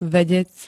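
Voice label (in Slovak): "vedec", Slovak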